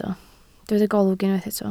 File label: "golwg", Welsh